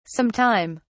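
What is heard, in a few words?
"some time", British English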